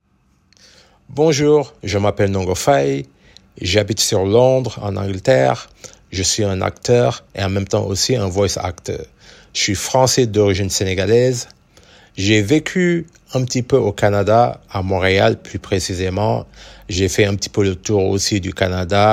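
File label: French Africa, general; GoLocalise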